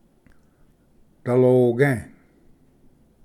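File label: "daloge", Cherokee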